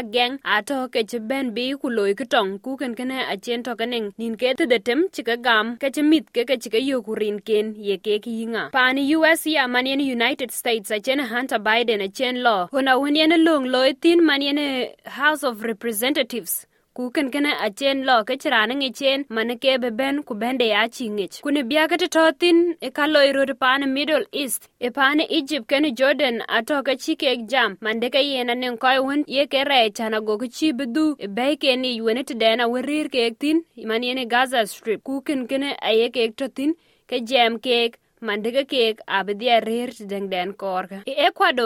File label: NEWS FLASH, Dinka; SBS Dinka